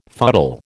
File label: "fuddle", American